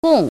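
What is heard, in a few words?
"gòng", Chinese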